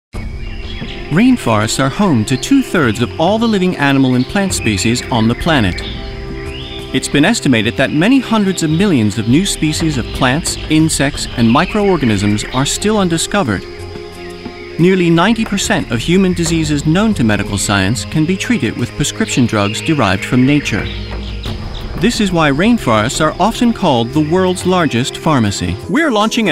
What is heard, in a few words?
Voiceovers UK Narration Showreel